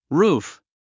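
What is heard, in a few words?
"roof", English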